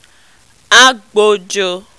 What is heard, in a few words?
"agbojo", Yoruba